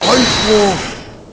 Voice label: "Einspruch", German